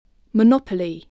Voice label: "monopoly", voice, spelling